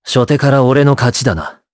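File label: voice, Japanese; Esker; kingsraid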